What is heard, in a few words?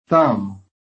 "thumb", phonetic